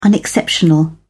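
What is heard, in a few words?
"unexceptional", British English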